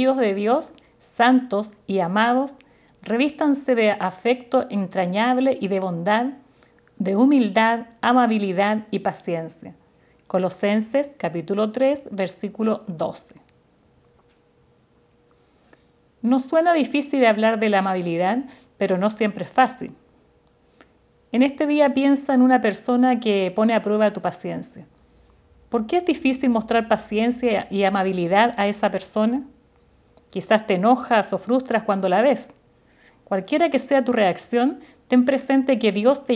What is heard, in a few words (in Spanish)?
devocional